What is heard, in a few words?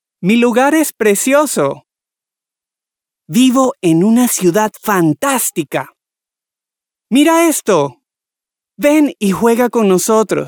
male children